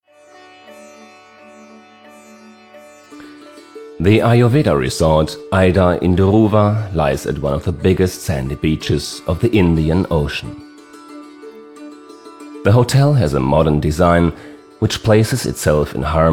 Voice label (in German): englisch